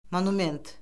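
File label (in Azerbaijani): "monument", Azerbaijani; Orfoqrafiya Lüğəti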